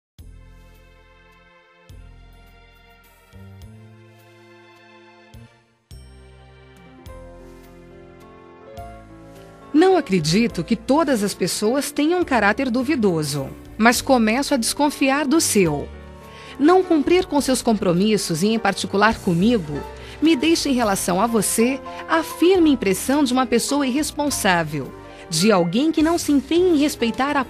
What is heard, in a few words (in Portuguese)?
TELEMENSAGEM COBRANÇA; Fem; duBroncas